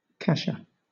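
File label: "kasha", British English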